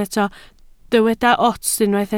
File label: "ots", Welsh